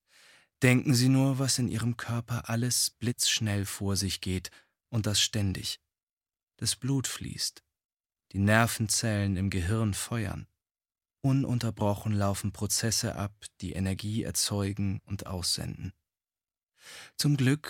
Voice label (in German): Hoerbuch Meditation